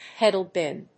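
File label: "pedal bin", English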